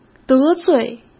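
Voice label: "得罪", Mandarin Chinese; hsk; chinese-flashcards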